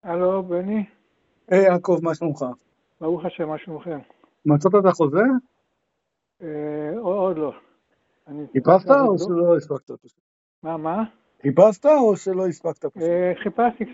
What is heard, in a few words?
Call recording